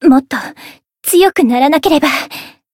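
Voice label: Voice win barely, Japanese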